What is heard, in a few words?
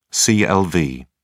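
"clv", English, British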